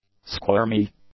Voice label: "squirmy", english; adjectives